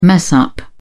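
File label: "mess up", British English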